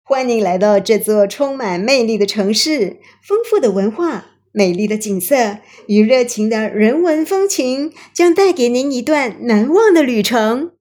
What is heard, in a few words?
Mandarin